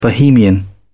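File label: "bohemian", British English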